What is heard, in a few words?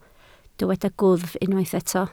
"gwddf", Welsh